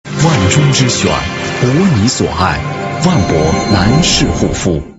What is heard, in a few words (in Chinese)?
广告 男士护肤; 万泊男士护肤